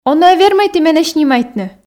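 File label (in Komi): он-ӧ вермӧй ті менӧ снимайтны, Komi, female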